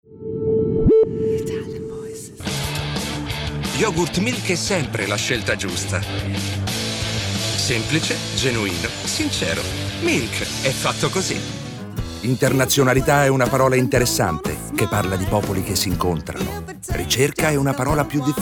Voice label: ITALIAN VOICES Commercial, male voices

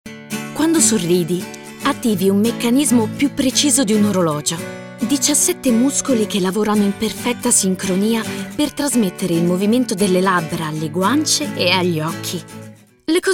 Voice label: ITALIAN VOICES Friendly Fresh, female voices

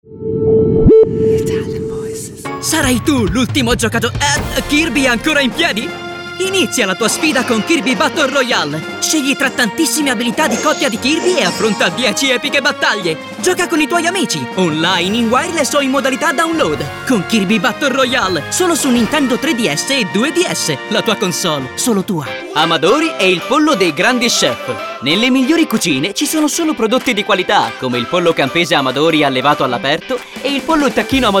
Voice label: ITALIAN VOICES Upbeat Young, male voices

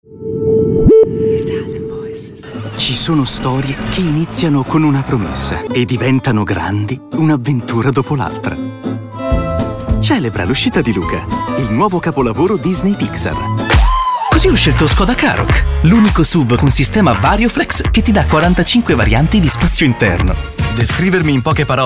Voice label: ITALIAN VOICES Recent Commercials, male voices

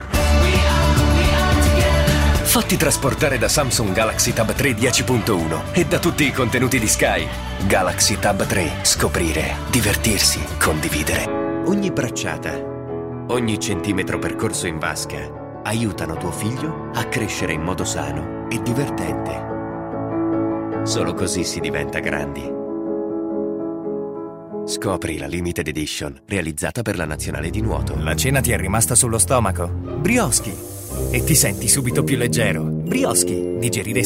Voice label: ITALIAN VOICES Fresh Friendly, male voices

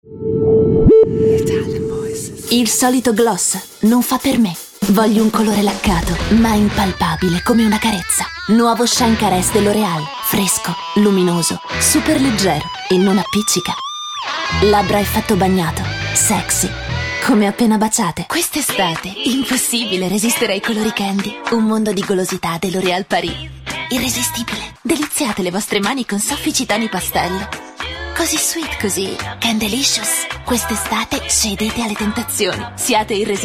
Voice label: ITALIAN VOICES Fashion Smooth, female voices

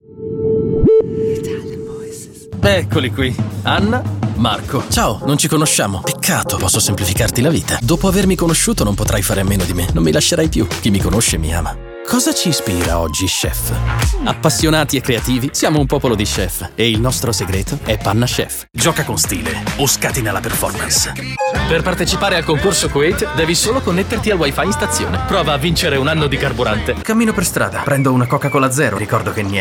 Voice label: ITALIAN VOICES Commercial, male voices; Commercial reel

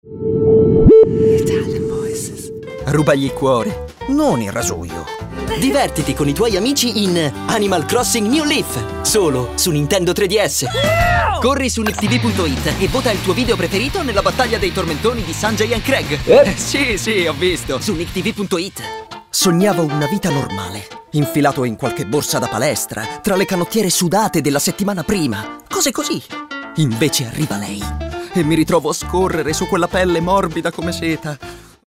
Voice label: ITALIAN VOICES Upbeat Young, male voices

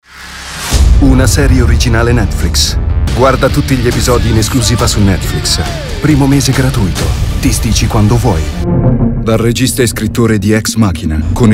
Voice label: ITALIAN VOICES TRAILER, male voices

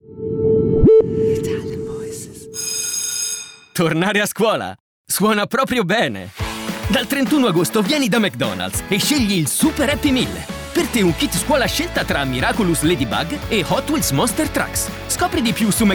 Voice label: ITALIAN VOICES Fresh Positive, male voices

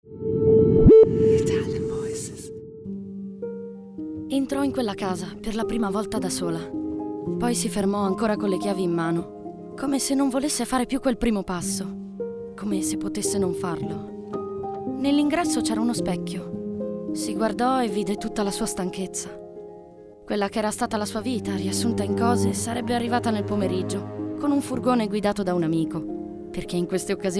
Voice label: ITALIAN VOICES, female voices; Naked Reel

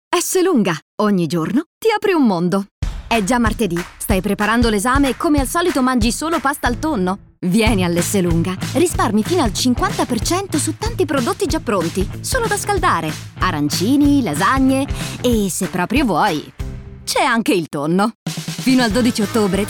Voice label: commercials, female voices

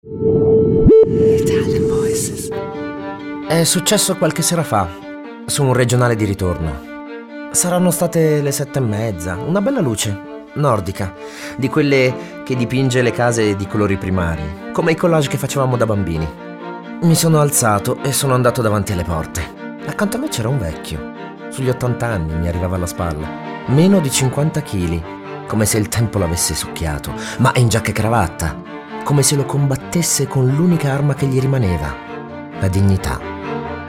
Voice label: ITALIAN VOICES, male voices; Naked Reel

